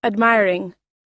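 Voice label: "acidic", US English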